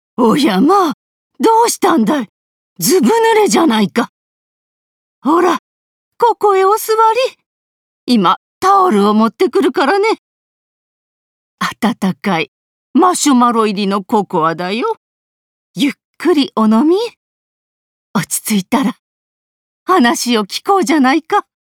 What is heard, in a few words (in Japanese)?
孫を世話するおばあちゃん; 孫とおばあちゃん